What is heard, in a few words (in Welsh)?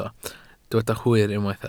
"hwyr", Welsh